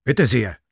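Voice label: positive vocalization, German; Sniper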